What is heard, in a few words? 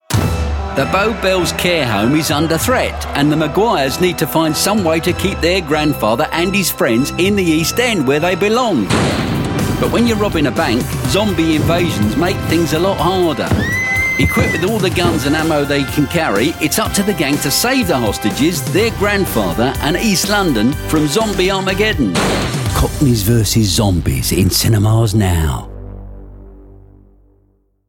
english british others; Anglais (britannique); Royaume-Uni